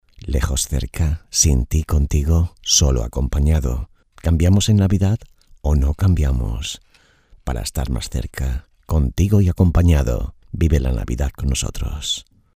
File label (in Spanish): navidad indicativo radio television voiceover; Indicativo navideño para emisoras de radio " Te invitamos"